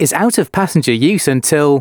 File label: announcements male